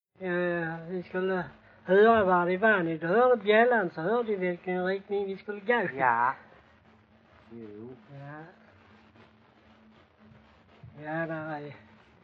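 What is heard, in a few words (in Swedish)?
Bosjökloster, Swedish dialect; Folkminnen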